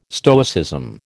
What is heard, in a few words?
"Stoicism", American